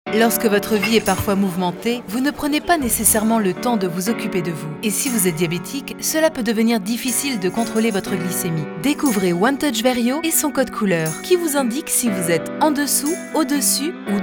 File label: TV Spot French Voice Over